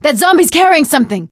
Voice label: fallen survivor, survivor voice; Left 4 Dead 2; Source Engine; video games; producer

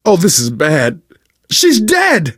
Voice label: grief female generic, survivor voice, manager

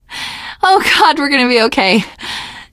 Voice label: hurrah, teen girl, survivor voice

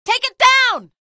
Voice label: alert gunship, female; video games; npc; source engine; episodic; episode 1

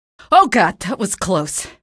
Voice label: evac casualty, female; video games; npc; source engine; episodic; episode 1

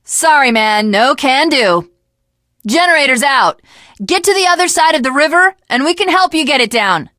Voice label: initial meeting, teen girl

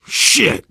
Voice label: swear, player survivor voice biker; source engine; left 4 dead 2

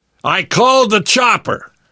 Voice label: radio used chopper, survivor voice

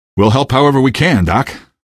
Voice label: squad train, male; Source Engine; video game; npc

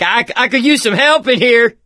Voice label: call for rescue, survivor voice

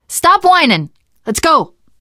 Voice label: revive friend, survivor voice teen girl; Left 4 Dead; Source Engine; video games

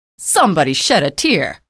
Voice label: kill, female; episodic; episode 1; Source engine

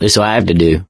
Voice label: take submachine gun, survivor voice; source engine; left 4 dead 2

